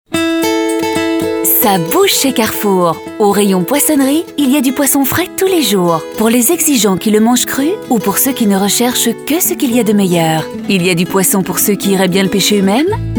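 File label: FR F commercial, French, female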